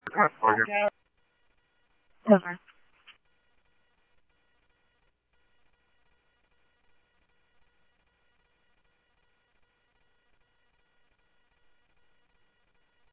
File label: Tempe Police, scanner audio; TEMPE PD A04